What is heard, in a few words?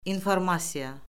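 "informasiya", Azerbaijani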